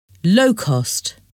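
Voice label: "low cost", English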